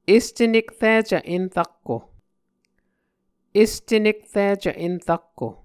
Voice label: "este nekricv enrakko", Muscogee

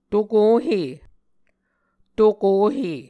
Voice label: "tokohe", Muscogee

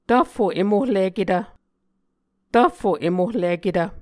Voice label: "tvffo-em-ohliketv", muscogee; Mvskoke Words with Repeated Consonants; Martin, Jack B., and Margaret McKane Mauldin. 2023. A Dictionary of Creek/Muskogee

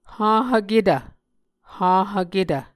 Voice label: "hahvketv", muscogee

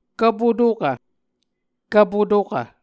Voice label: "kvpotokv", Muscogee; A Dictionary of Creek/Muskogee; SIL International; Onvkv Ohhvyayices

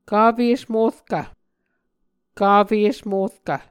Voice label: "kafe esmorkv", Muscogee

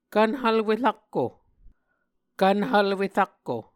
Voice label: "kvnhvlwe rakko", Muscogee

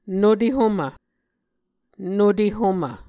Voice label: "nute homv", Muscogee

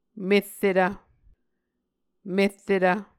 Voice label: "merretv", Muscogee

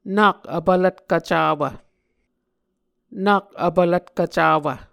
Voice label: "nak vpvlvtkv cawv", Muscogee

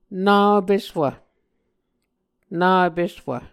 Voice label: "na vpeswv", Muscogee

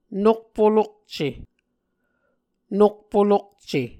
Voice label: "nokpolokce", Muscogee

